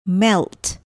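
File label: "melt", English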